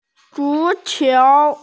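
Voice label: "zu2qiu2", Mandarin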